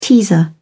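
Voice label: "teaser", British English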